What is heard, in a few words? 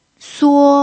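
"suo", Mandarin Chinese pinyin, first tone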